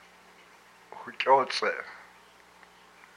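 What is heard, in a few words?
"my sinew", Hupa, male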